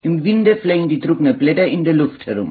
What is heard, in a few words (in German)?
ostfrankisch